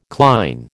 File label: "cline", American